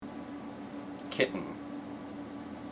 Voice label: "kitten", IPA